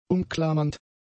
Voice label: "umklammernd", German; adjectives